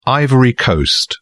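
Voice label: "ivory coast", British English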